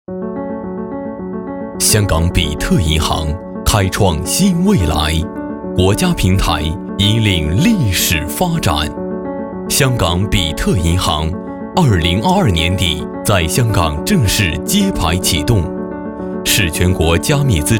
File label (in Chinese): 男 宣传片 科技; 香港比特银行